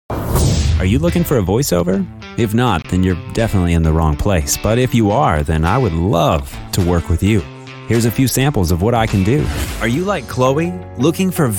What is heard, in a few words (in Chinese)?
外籍男英 外语 美式英语 商业广告